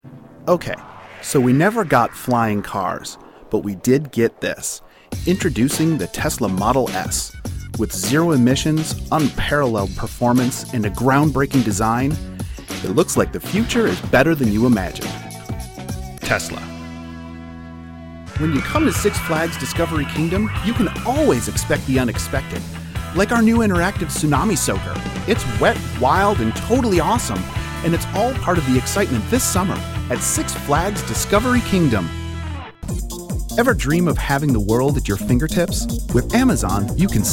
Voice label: MALE